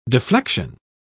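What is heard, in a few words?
"deflexion", British English, dictionary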